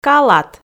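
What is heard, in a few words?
"калат", Komi, female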